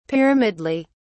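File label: "pyramidally", British English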